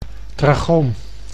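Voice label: "trachoom", Dutch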